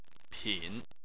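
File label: "pin" third tone, Chinese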